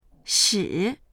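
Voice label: "shi3", Chinese; 使; 使う、～に‥‥させる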